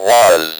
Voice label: "WAS", Speak & Spell

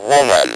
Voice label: "WOMAN", Speak & Spell

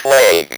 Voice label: "PLAGUE", Speak & Spell

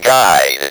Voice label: "guide", Speak & Spell

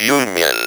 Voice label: "union", Speak & Spell

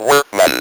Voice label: "WORKMAN", Speak & Spell

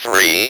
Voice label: "THREE", Speak & Spell

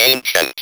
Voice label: "ANCIENT", Speak & Spell

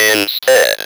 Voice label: "INSTEAD", Speak & Spell

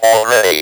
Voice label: "ALREADY", Speak & Spell

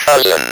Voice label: "COUSIN", Speak & Spell

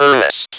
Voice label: "earnest", Speak & Spell

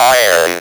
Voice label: "iron", Speak & Spell

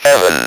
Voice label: "heaven", Speak & Spell

